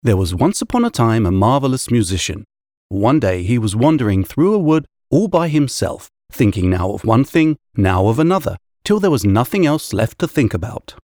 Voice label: eng